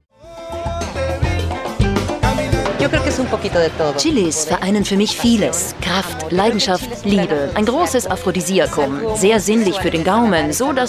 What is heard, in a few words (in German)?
kulinarische doku